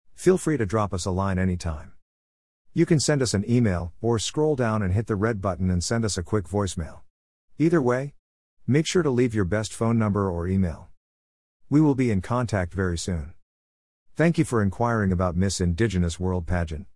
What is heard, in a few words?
voicemail; Miss Indigenous